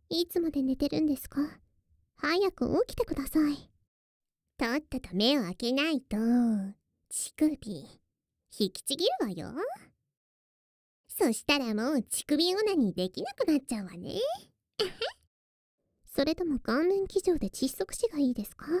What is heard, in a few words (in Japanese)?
目覚ましボイス; 目覚ましボイス(アリア&セレナ2種); メスガキ双子シスター